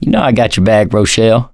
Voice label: you are welcome, voice, mechanic; survivor; producer